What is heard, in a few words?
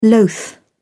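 "loath", English, British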